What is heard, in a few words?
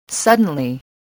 "suddenly", spelling